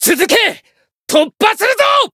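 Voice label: limit break, Japanese; benedit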